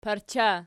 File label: "parcha", Archi